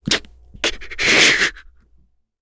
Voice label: Vox Sad kr; heroes; Chase; kingsraid

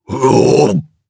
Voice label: Casting, jp; Phillop; kingsraid; voices heroes

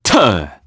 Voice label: Landing, jp; Ezekiel; kingsraid

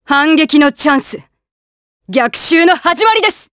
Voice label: Vox Skill jp; Glenwys; kingsraid

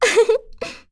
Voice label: Yuria Vox Happy, voices heroes en; kingsraid-audio

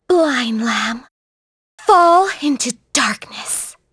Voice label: Vox Skill, en; kingsraid; voices heroes en

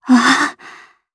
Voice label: Happy, jp; Valance; kings raid

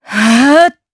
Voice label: Vox Casting jp; Seria; kingsraid audio

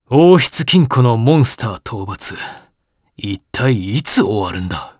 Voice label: vox, jp; Roman; kings raid

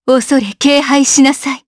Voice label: Vox Skill jp; kingsraid

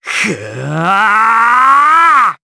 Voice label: Casting, jp; Siegfried; kingsraid

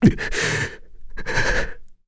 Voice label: Sad; Bernheim; Kings Raid

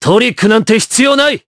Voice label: Vox Skill jp; Esker; kingsraid

